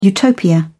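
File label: "Utopia", British English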